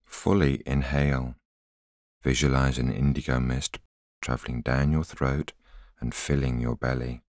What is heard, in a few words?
voiceover